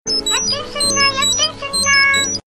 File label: Cute Cantonese